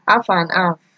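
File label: "half and half", Nigerian Pidgin, female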